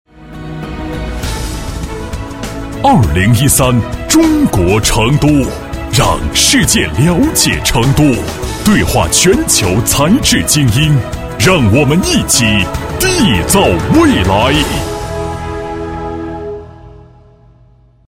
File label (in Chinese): 男 片花 大气